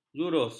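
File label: "jurus", Malay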